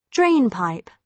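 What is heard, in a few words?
"drainpipe", British English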